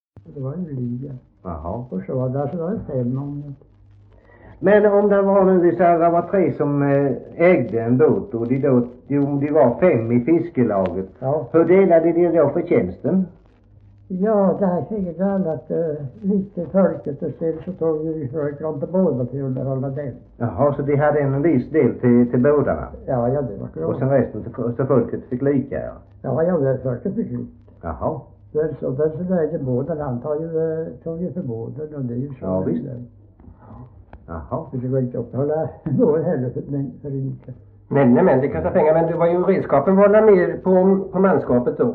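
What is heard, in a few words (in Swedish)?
Värö Halland, dialekter svenska sagor; Folkminnen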